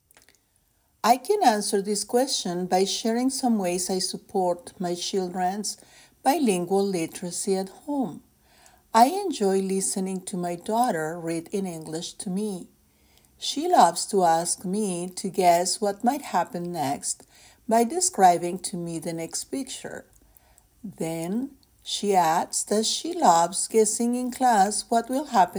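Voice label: English Speaking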